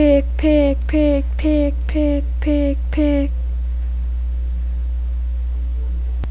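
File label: "pick", spoken word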